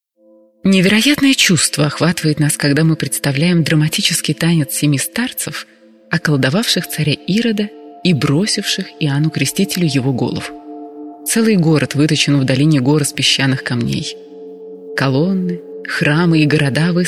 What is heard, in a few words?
rus